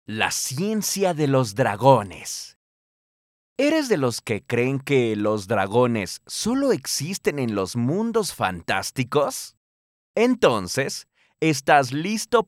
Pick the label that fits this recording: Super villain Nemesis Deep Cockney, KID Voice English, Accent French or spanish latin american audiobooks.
spanish latin american audiobooks